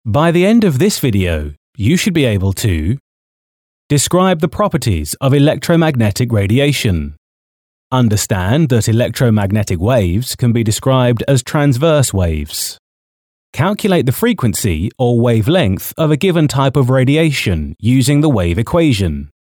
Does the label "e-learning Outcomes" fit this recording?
Yes